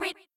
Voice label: buncha books, girls; cheerReaders; LetsGoRead